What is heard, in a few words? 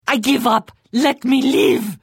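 Flee, Khajiit female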